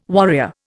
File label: "warrior", Indian